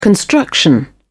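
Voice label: "construction", English, British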